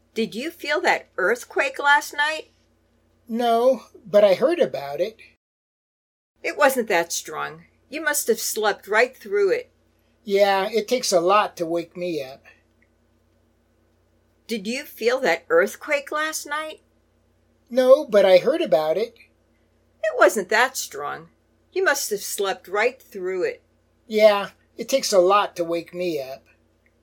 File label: Natural Dialog; Lesson 3